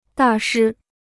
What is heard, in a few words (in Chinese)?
"大师", Chinese